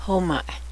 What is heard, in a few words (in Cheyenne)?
beaver, Cheyenne; hóma'e